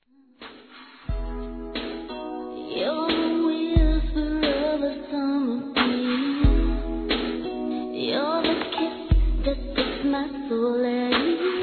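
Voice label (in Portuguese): irmã, masculino; Desculpas; Telemensagens 2017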